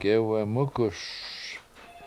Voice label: Type of Plant, Washo; gEwemUkuS